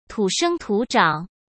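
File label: "土生土长", Mandarin Chinese; hsk; chinese-flashcards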